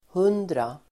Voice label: "hundra", Swedish